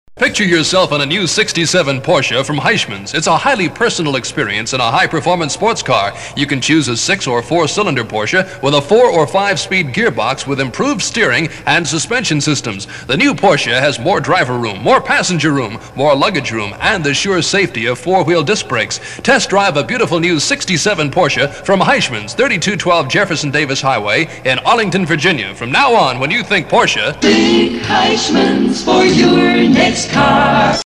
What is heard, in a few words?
spot; heishman porsche